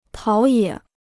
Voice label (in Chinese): "陶冶", Chinese